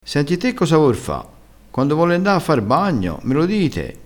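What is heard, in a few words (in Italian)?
"dii", dialettale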